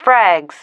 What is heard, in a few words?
"frags", female